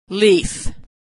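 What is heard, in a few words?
"leaf", American English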